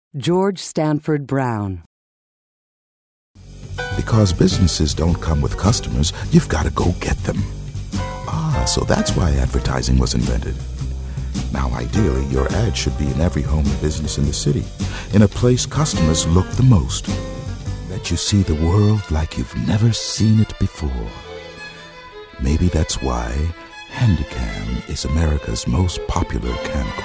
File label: commercial; Innovative LA